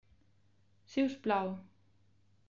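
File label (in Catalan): "siusplau", Catalan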